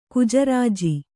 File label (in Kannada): "ಗಜರಾಜ", Kannada